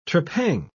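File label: "trepang", British English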